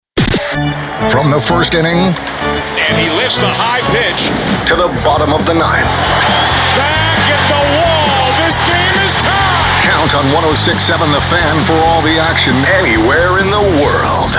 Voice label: Sports Imaging